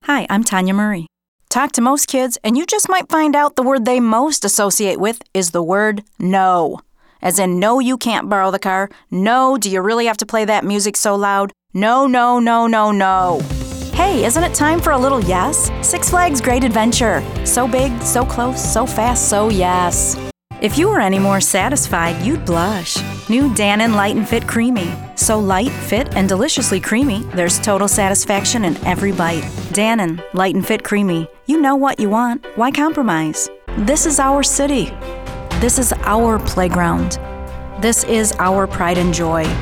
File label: Commercial